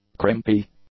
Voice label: "crimpy", English; adjectives

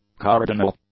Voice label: "cardinal", English; adjectives

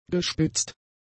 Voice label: "gespitzt", German; adjectives

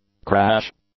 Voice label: "crash", English; nouns

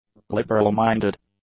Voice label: "liberal-minded", english; adjectives

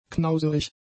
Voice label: "knauserig", German; adjectives

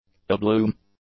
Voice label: "abloom", english; adjectives

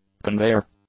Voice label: "conveyor", English; nouns

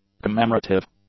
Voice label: "commemorative", English; adjectives

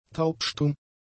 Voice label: "taubstumm", German; adjectives

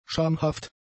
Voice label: "schamhaft", german; adjectives

